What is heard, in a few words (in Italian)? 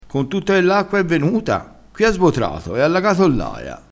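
"sbotrato", dialettale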